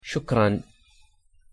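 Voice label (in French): merci, Arabic pronunciation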